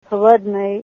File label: it is cold, Ninilchik Russian; weather